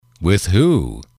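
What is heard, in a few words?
with who, male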